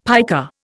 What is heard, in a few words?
"piker", Indian; Dictionary